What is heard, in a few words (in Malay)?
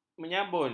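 "menyabun", Malay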